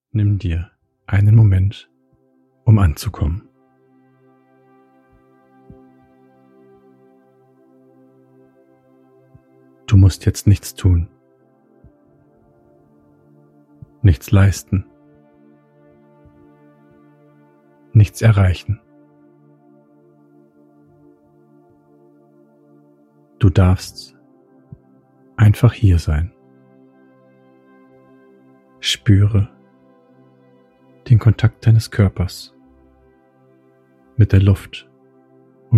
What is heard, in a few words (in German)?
Meditation, gefuehrte meditation